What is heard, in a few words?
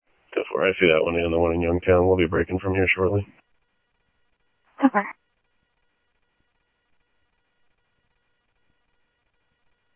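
Sheriff West County, scanner audio trunk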